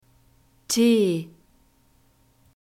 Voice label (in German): "t", German alphabet